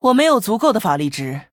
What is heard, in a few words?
Female Human ERROR NEED MANA, zh